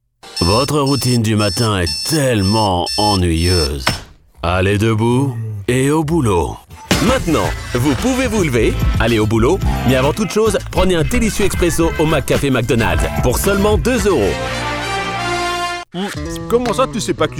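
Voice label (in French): publicite, french male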